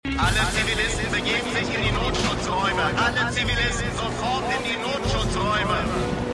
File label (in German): Durchsage; Eureka